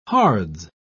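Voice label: "hards", British English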